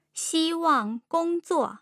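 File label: "xiwang gongzuo", Mandarin Chinese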